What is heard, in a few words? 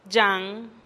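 "dxan", Teotitlán del Valle Zapotec; santo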